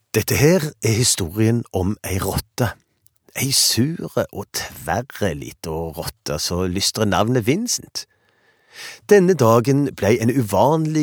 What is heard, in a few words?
no dia stavanger